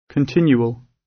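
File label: "continual" British; Space, the planets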